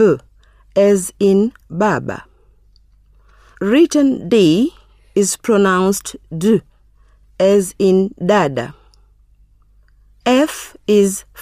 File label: Swahili Consonants; Teach yourself Swahili audio